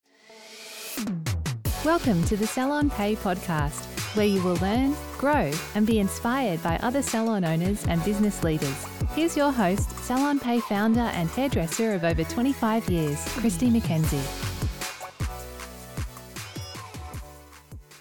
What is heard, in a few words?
English Australian podcasts